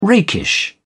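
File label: "rakish", British English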